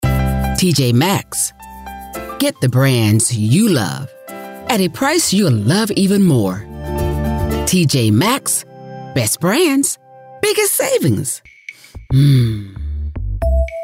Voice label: English - American

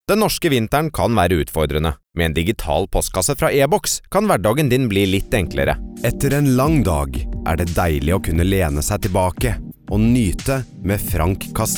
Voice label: Norwegian male

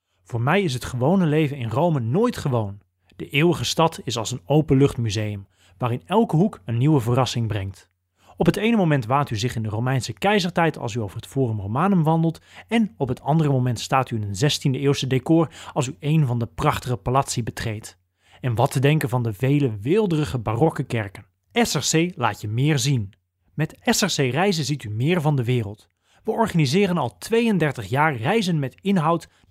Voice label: Dutch

